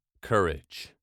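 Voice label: "courage", British English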